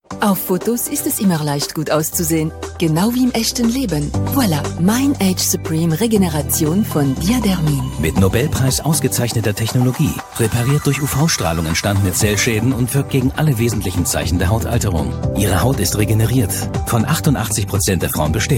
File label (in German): werbung; Diadermine